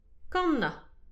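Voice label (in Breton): "kannan", Breton; petit precis de prononciation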